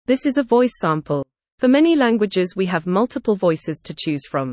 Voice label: en-GB News; voix générées par l’intelligence artificielle